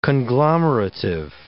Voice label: "conglomerative", English

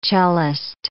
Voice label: "cellist", English

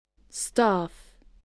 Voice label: "staff", voice

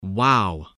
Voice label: "wow", spelling

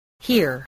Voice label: "here", English, spelling

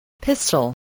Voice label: "pistol", voice spelling

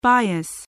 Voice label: "bias", English

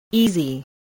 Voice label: "easy", English

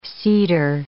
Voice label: "cedar", English

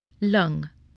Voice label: "lung", spelling voice

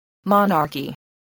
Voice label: "monarchy", voice, spelling

